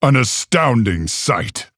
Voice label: Male Orc Wow

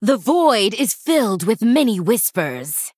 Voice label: Female Human Play

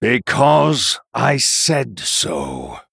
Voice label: Male Human; Uther

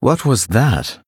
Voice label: Male Blood Elf Oops

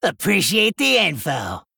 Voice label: Male Gnome Thanks

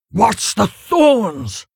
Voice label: Injured Hauler Tauren Emote Attack

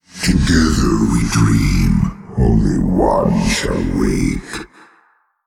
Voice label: Male Old God MIRROR GREETINGS

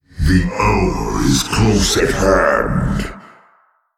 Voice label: Male Old God

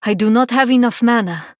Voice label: Female Draenei, error need mana

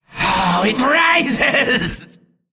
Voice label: Male Qiraji Attack

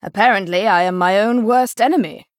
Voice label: Female Night Elf; Elise

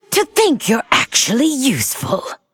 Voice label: Female Lich Thanks

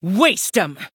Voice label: Female Human, Attack

